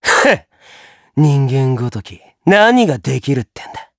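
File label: voice dia, voices heroes, Japanese; Neraxis; kingsraid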